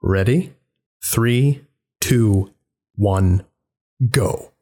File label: countdown en